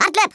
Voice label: RUNAWAY, Africaan, Speech; Worms Armageddon

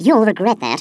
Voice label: "YOU'LL REGRET THAT", Stiff Upper Lip

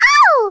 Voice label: BUNGEE, Speech Italian; Worms Armageddon

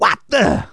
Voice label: "What the", speech; Soul Man

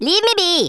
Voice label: "leave me alone", Rasta

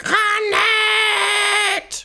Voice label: "grenade", Danish; Worms Armageddon; Danish

